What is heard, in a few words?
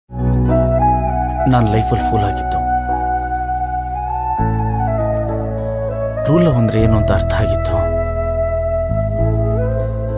Kannada feeling dialogue; mungaru male 2